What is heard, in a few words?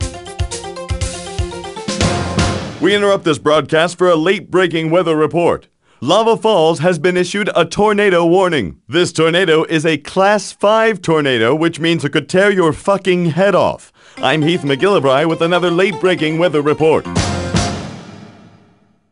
News Tornado